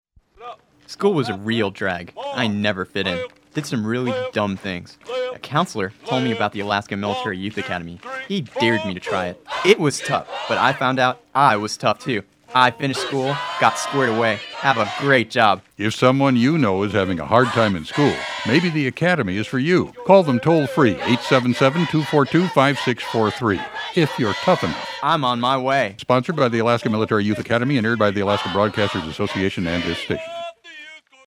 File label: radio commercial; alaska